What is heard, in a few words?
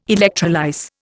"electrolyse", Indian